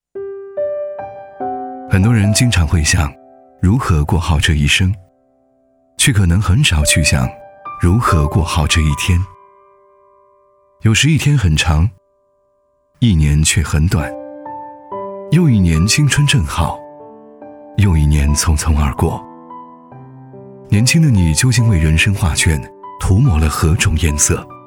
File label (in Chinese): 男国 其他 微电影 独白; 青春